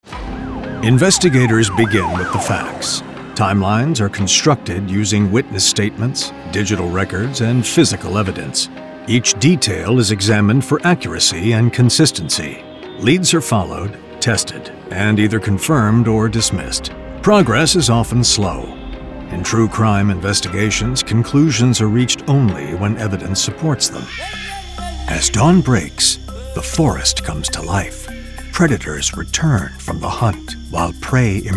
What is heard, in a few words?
Documentary TV Narration